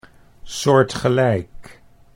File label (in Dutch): "soortgelijk", Dutch